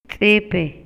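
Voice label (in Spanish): Maya, Yucatán, male; MOVIMIENTO; INALI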